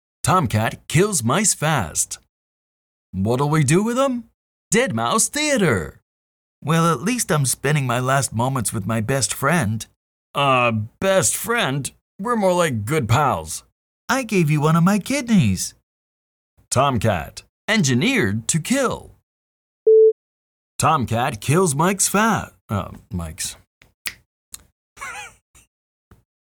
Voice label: Announcer Character 1 Character 2